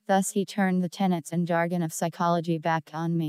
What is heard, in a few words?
Creaky; arctic